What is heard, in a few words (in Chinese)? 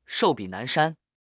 寿比南山, Mandarin